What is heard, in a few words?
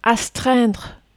"astreindre", French